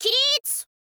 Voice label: "kiritsu", girl

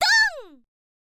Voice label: "don", girl; voice

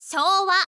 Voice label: "syouwa", info girl voice

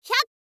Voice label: "hya", info girl voice

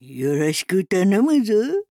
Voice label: necromancer old woman greeting

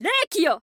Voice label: witch special

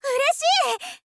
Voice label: "ureshii", girl

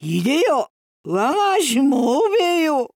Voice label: necromancer oldwoman special, voice

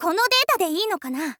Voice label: info girl "kono data de ii no kana"

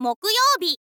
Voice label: "mokuyoubi", info girl voice

